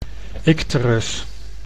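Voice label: "icterus", Dutch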